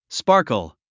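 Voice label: "sparkle", English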